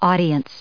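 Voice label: "audience", English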